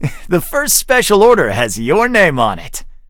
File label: levelup en